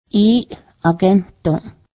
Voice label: i know, Cayuga

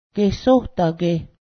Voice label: palm, Cayuga

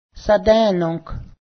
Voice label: "kin", Cayuga

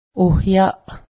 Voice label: fruit, Cayuga

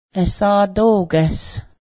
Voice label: notice, Cayuga

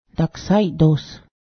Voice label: chicken, Cayuga

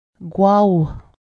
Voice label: owl big, Cayuga

